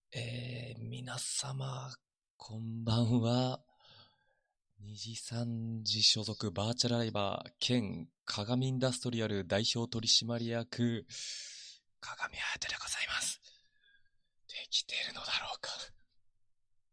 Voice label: greeting binaural; kagami hayato

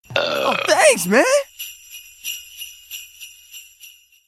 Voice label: thanks man burp jingle bells; lucio

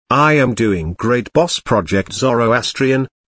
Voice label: narrator

